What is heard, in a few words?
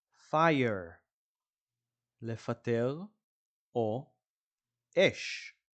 "fire" – "לפטר אש"